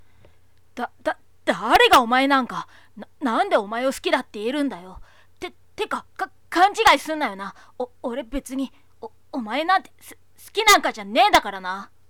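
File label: tsundere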